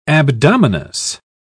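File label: "abdominous", British English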